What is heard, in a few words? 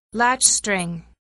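"latchstring", English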